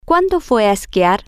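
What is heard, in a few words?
Spanish, female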